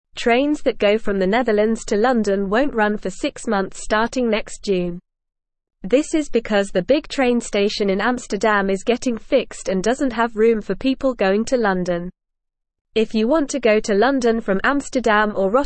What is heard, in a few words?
English Beginner NORMAL Reading; No Trains from Netherlands to London for 6 Months; English Newsroom